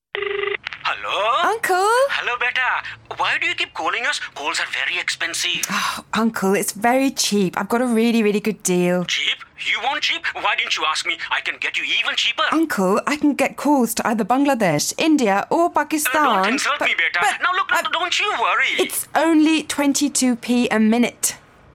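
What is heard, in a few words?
Hindi, General English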